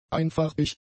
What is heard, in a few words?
"einfarbig", German; adjectives